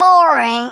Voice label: "BORING", Australian; Worms Armageddon